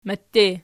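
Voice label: "mette", nominative, Archi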